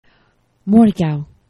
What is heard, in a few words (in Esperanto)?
"morgaux", esperanto; geneva